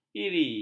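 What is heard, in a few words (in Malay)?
"iri", Malay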